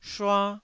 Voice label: "shua1", taiwanese